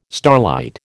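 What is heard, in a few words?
"starlight", American English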